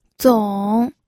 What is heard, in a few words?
"zong3", pinyin